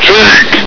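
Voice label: "take!", male; hl2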